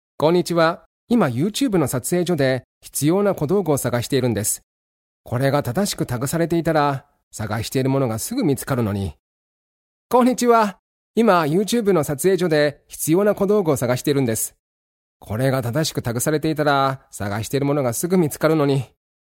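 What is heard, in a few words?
Japanese, male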